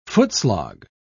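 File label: "footslog", en, uk British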